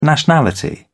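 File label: "nationality", British English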